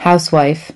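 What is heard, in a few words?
"housewife", English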